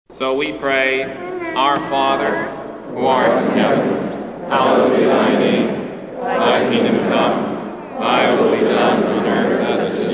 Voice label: Church Ambience - Prayer; Halloween Sound Effects